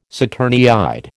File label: "saturniid", American